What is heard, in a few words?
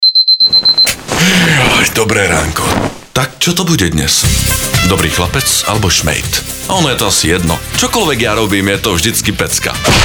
radio; Nescafe Classic